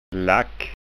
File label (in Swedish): "lack", skanska ord